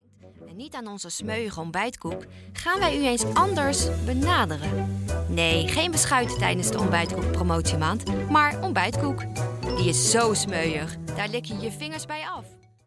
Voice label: Commercial, voice